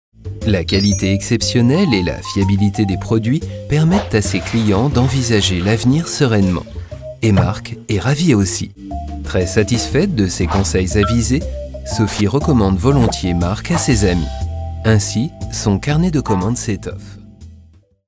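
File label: French, Variabel; Fremdtake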